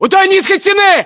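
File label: landmark near wall low, Russian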